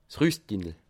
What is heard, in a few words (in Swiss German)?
"rustinel", alsace